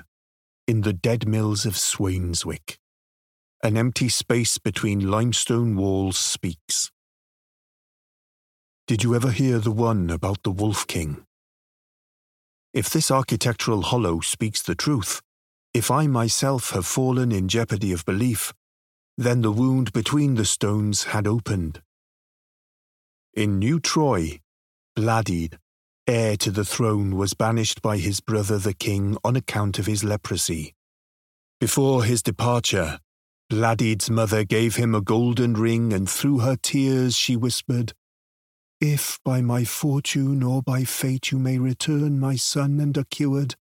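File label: English UK, Welsh English accented; Golocalise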